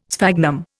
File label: "sphagnum", Indian